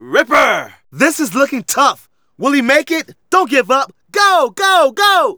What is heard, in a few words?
Narration